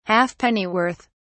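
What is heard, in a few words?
"halfpennyworth", British English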